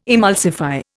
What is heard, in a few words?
"emulsify", Indian